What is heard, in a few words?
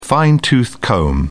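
"fine-tooth comb", British English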